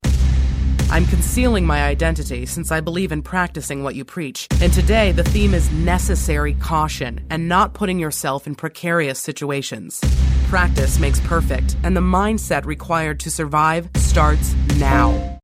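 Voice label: Narration hard hitting intense attitude biting strong authoritative direct, Corporate Narration Voice Over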